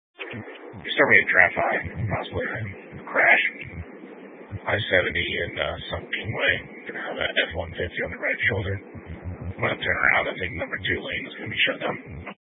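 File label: Sheriff Dispatch, scanner audio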